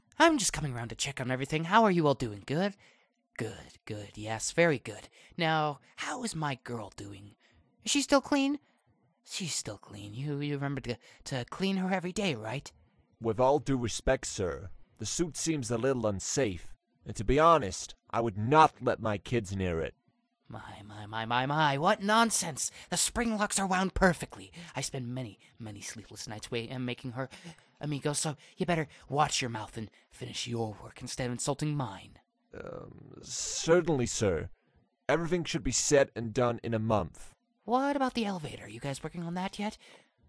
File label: Voice Acting; Five Nights at Ronald's